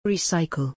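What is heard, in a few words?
"recycle", English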